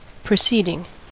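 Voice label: "proceeding", US English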